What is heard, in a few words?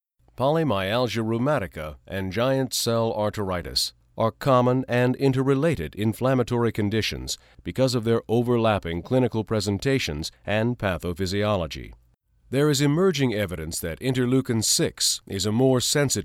Technical Medical read